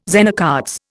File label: "Zener cards", Indian